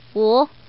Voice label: Woman